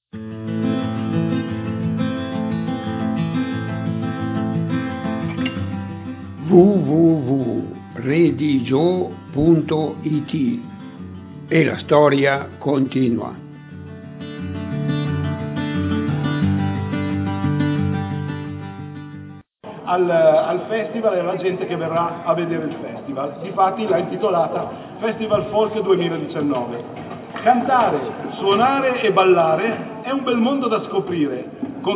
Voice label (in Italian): galliate dialetto